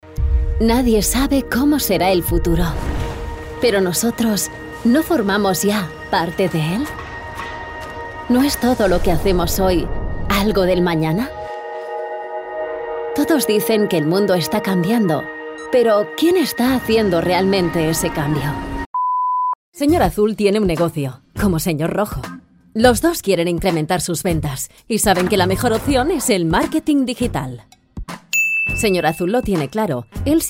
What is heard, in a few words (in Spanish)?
comercial